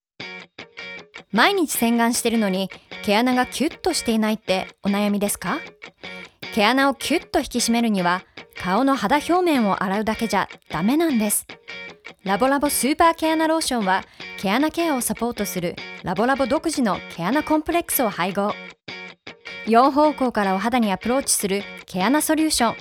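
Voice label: Commercial Japanese Upbeat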